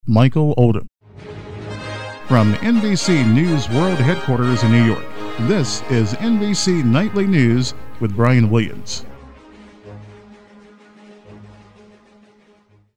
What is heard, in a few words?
Nightly News Open, Auditions; NBC